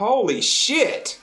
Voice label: holy shit, Voice expletives